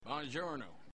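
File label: "buongiorno", Italian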